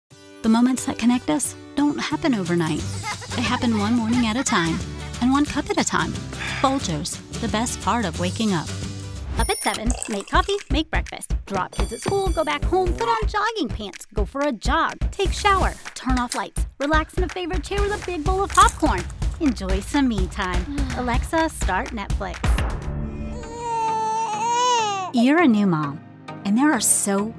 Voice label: Commercial